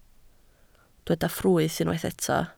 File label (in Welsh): "ffrwyth", Welsh